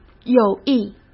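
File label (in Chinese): "友谊", Mandarin Chinese; chinese-flashcards; hsk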